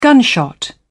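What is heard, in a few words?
"gunshot", British English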